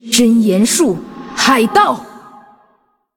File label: Female Ghost PIRATE DAY; zh